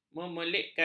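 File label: "memelikkan", Malay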